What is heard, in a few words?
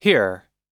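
"hear", American English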